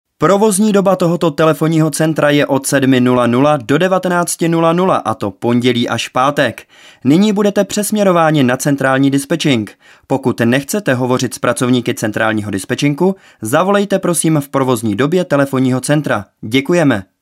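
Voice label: male Czech IVR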